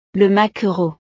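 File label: "le maquereau", French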